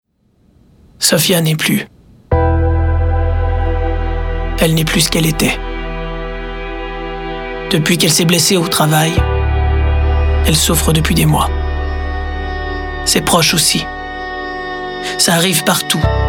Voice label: Narration; CSST